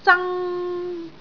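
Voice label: "zang1", Cantonese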